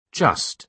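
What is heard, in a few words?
"just", British English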